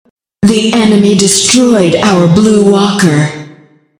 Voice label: female ally blue walker destroyed, announcer female; patron